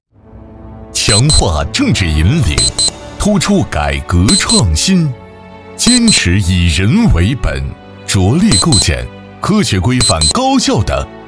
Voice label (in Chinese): 男 传统专题